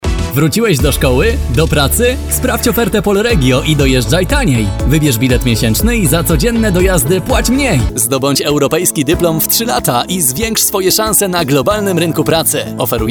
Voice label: demo commercial